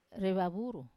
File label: "re vavuru", eyelash, wamesa